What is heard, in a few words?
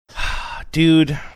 sighing saying dude